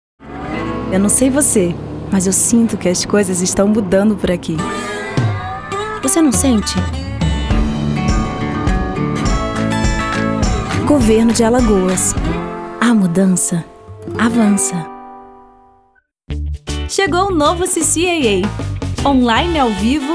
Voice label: rolo locs conversado

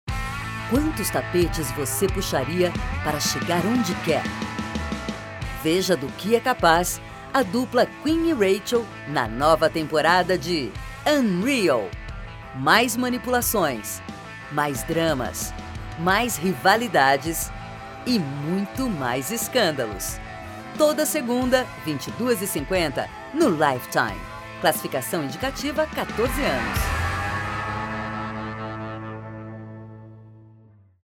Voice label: Spot com trilha; UnReal

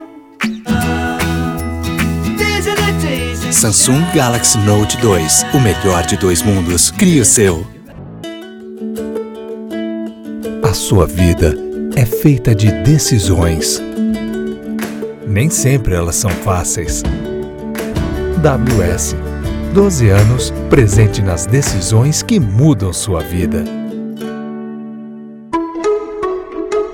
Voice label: locucao repertorio de filmes

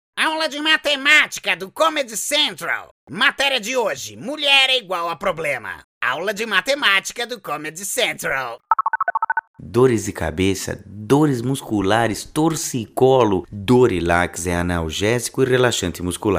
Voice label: LOC CARICATA

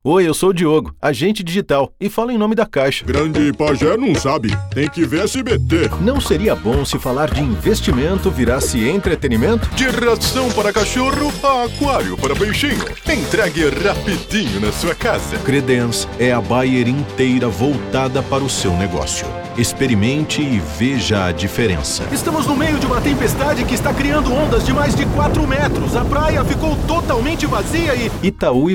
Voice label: repertorio locucoes

